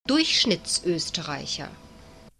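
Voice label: "durchschnittsoesterreicher", German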